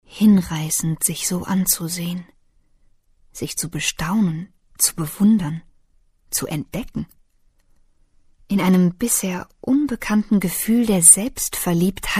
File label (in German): Schauspiel Sanft